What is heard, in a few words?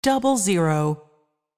voice Over, roulette; american roulette 3